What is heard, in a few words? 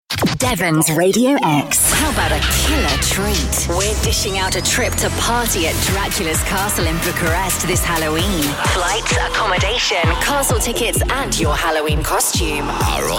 imaging